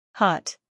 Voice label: "hot", English

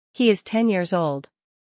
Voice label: "He is ten years old", English

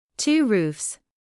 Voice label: "two roofs", English

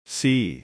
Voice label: "sea", English

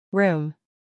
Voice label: "room", English

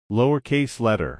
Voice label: "lowercase letter", English

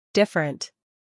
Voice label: "different", English

